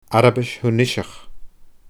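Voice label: "Arabais Thuiniseach", Scottish Gaelic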